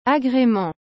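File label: "agrement", French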